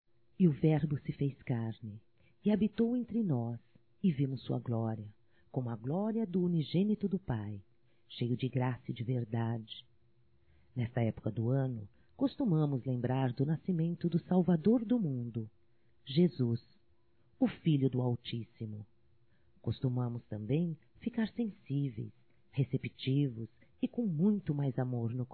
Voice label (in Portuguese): NATAL AMIGA, Telemensagens, Evangelico, fem; Telemensagens 2017